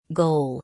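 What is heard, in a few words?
"goal", English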